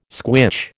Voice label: "squitch", American